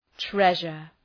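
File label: "treasure", English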